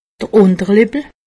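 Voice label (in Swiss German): "underlippel", Alsatian; medecine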